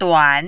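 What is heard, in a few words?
"duan", third tone, Mandarin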